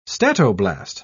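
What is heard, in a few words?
"statoblast", British English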